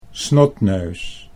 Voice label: "snotneus", Dutch

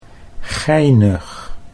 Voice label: "geinig", Dutch

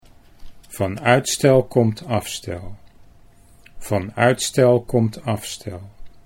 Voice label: van uitstel afstel, Dutch

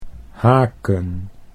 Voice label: "haken", Dutch

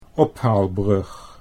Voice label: "ophaalbrug", Dutch

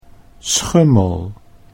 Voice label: "Schummel", Dutch

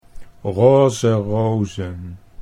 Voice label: "roze rozen", Dutch

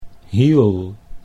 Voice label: "hiel", Dutch

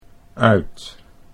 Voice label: "uit", Dutch

